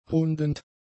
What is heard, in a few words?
"rundend", German; adjectives